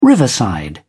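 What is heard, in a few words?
"riverside", British English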